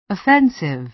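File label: "offensive", American English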